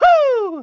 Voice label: "hoo"; mario